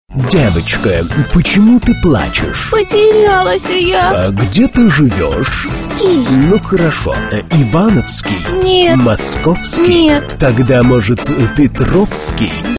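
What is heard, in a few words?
parody; Reva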